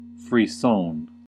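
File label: "frisson", American English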